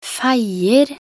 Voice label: "feier", nb_NO

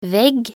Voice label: "vegg", nb_NO

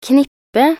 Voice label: "knippe", nb_NO

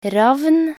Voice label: "ravn", nb_NO

